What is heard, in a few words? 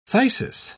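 "phthisis", British English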